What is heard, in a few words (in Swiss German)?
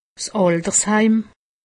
"altersheim", alsace; medecine